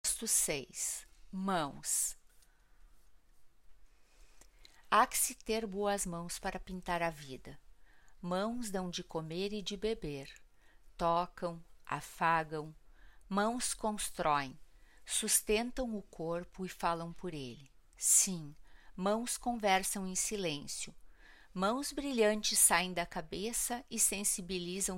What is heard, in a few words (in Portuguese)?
narração; Mãos; galeria virtual